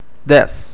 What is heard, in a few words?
"dez" Portuguese